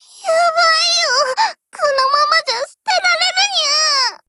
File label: BREAK JP; GF Alarm; IDW